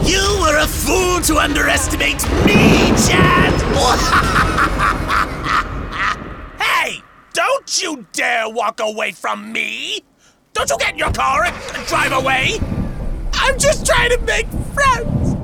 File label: Animation, voice over